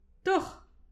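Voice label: "tourch", Breton; petit precis de prononciation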